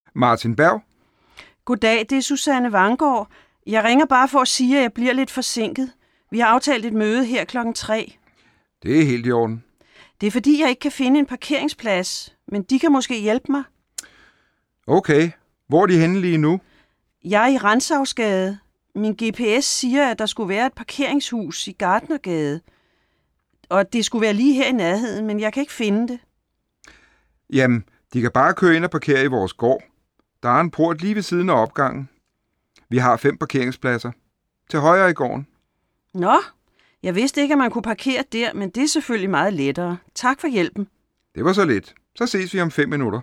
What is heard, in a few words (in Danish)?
dialog